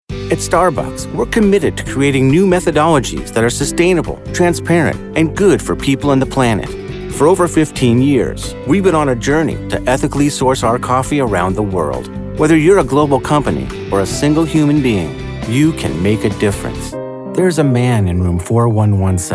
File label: corporate